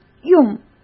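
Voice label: "用", Mandarin Chinese; chinese-flashcards; hsk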